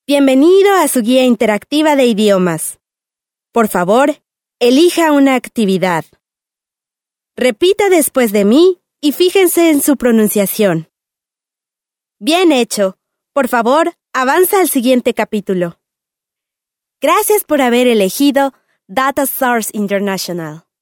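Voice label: female corporate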